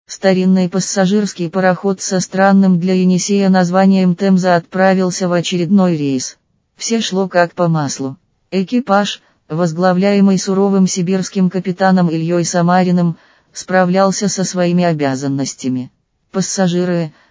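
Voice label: Russian; RHVoice; Irina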